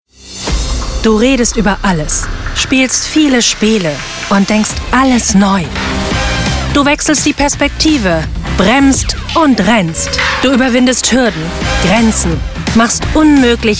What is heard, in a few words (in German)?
Werbung dynamisch; Premiumpils